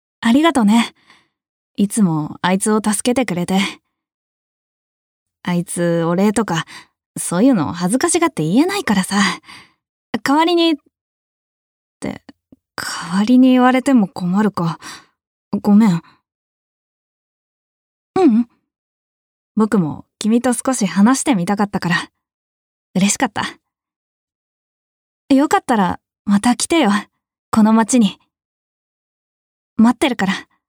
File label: female; f syonen